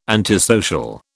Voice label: "antisocial", British

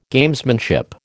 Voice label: "Gamesmanship", American

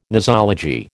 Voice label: "nosology", American

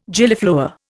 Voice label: "Gillyflower", Indian

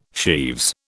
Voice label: "sheaves", British

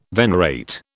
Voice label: "venerate", British

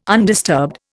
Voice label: "undisturbed", Indian

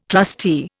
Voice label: "trustee", Indian

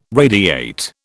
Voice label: "radiate", British

